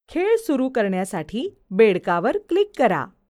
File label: Click Frog VO, Marathi